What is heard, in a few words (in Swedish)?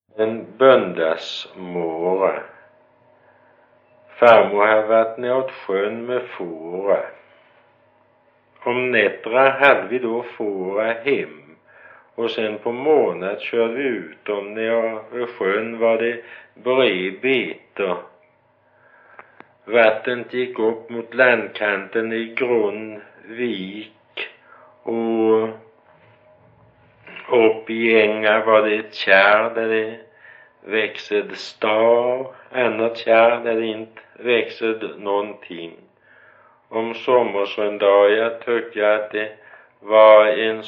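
Gårdby Öland, dialekter; Folkminnen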